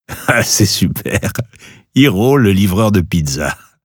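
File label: French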